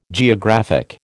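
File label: "geographic", American English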